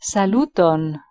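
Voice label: "saluton" Esperanto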